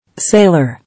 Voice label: "Sailor", English

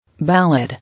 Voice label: "Ballad", English

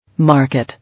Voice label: "Market", English

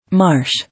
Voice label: "Marsh", English

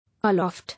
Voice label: "Aloft", English

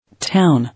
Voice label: "Town", English

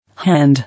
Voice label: "Hand", English

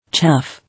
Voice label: "Chaff", English

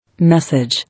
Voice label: "Message", English

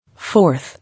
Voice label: "Fourth", English

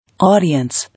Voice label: "Audience", English

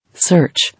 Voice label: "Search", English

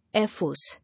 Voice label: "Air force", English

